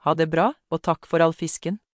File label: so long, Norwegian